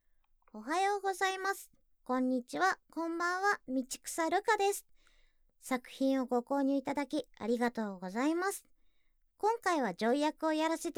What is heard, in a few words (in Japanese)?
女医役; フリートーク; 去勢クリニックで勃起不能化施術をされてしまう話